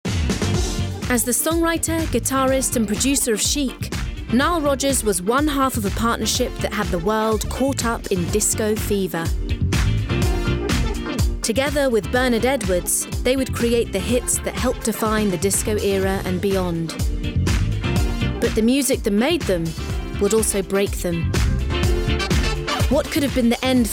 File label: Documentary